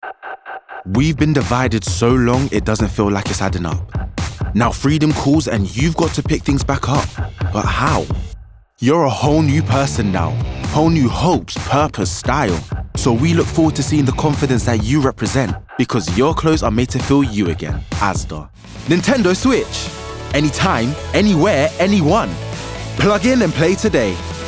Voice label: Commercial